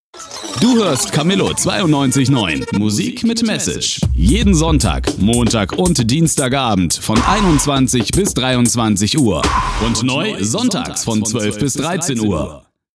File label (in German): Jingle Musik mit Message Sweeper SFX